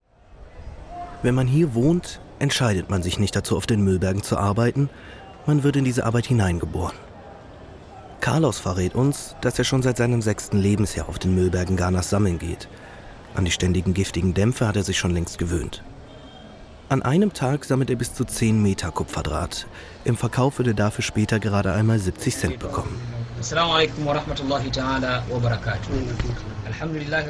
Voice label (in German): doku